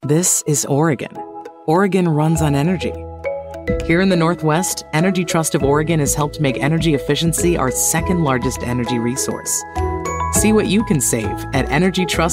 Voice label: informational articulate bright friendly approachable intelligent